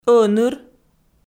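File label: "ӧныр", Komi, female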